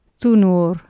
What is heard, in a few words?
"thunor", Anglo Saxon